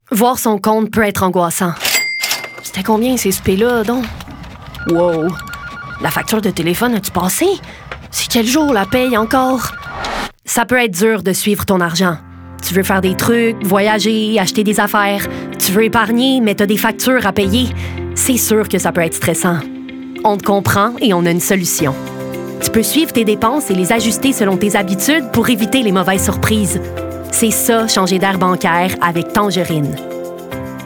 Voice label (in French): Publicité; Tangerine